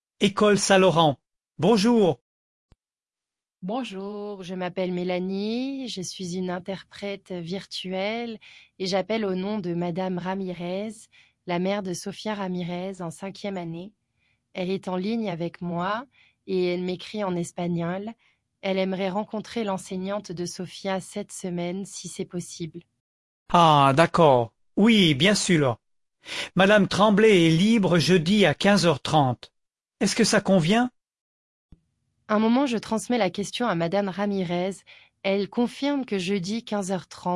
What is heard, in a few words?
school call quebec french